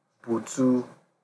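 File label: "butu", Nigerian Pidgin, male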